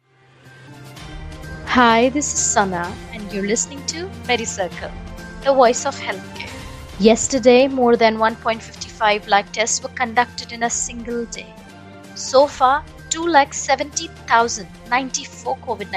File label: english news